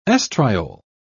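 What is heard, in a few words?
"estriol", British English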